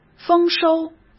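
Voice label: "丰收", Mandarin Chinese; chinese-flashcards; hsk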